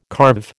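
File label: "carve", American English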